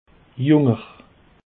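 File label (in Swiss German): "junger", Alsatian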